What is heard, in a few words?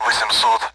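Speech Sound Russian Common AWACS Numbers; DCS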